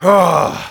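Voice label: negative vocalization, German; Spy; "Ughhhh..."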